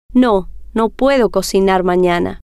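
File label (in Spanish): no Puedo Cocinar Manana, spanish, female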